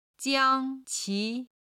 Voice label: "jiang1 qi2", Chinese